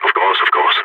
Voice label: agree, balkan